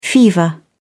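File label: "fever", English, British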